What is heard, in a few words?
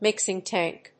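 "mixing tank", English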